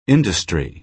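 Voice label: "industry", American English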